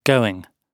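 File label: "going", British English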